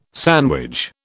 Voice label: "sandwich", British

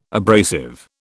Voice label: "abrasive", British

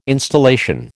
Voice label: "installation", American

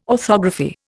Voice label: "orthography", Indian

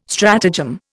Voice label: "stratagem", Indian

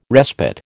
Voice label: "respite", American

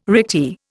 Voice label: "recti", Indian

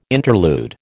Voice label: "interlude", American

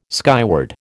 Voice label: "skyward", American

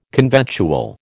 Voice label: "conventual", American

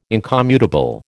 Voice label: "incommutable", American

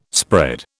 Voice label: "spread", British

